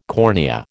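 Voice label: "cornea", American English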